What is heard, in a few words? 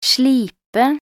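"slipe", Norwegian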